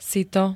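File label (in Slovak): "sito", Slovak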